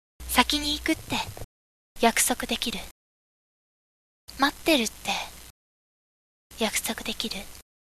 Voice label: girl